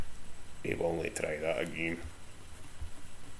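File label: "willnae", slang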